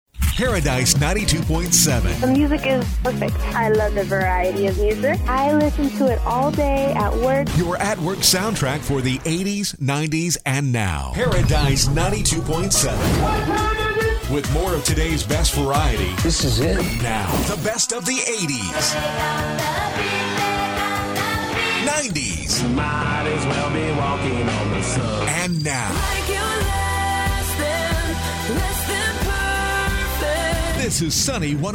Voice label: Radio Imaging